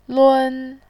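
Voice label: "lun", Mandarin, tone 1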